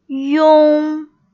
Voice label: "iong", Pinyin Finals